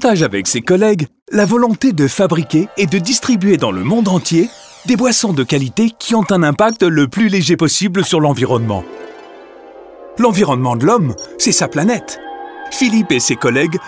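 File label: Voix Off Voice Over, bilingue Américain Français; Orangina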